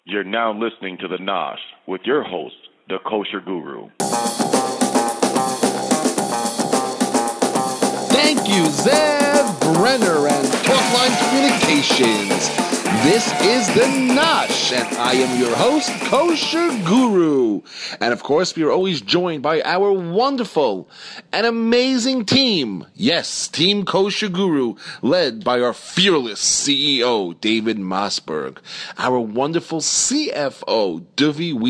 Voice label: Voice over